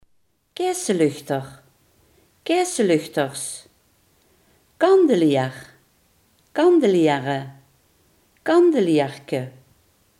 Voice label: "kandelaar", Limburgs

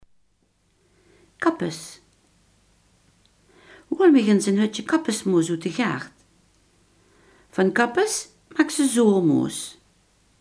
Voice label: "kool", Limburgs

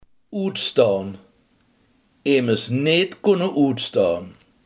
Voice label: "uitstaan", limburgse

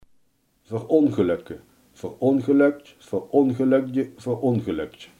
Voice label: "verongelukken", limburgse

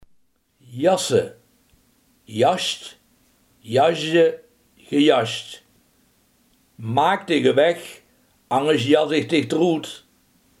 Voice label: "wegjagen", limburgse

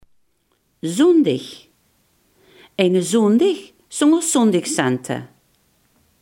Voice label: "zondag", limburgse

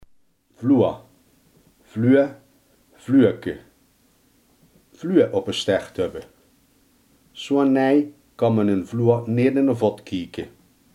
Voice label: "vlo", limburgse

